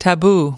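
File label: "taboo", US English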